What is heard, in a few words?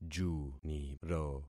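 "juniro", concatenated syllables; Affixing experiment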